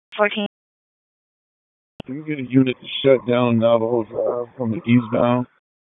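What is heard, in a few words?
Raleigh Police Northeast District Dispatch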